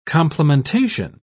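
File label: "complementation", British English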